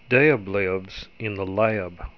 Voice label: southern Lax